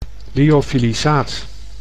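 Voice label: "lyofilisaat", Dutch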